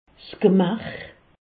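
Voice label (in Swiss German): "gemach", Haut Rhin; medecine; organes génitaux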